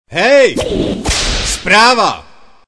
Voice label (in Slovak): sms hlásky cz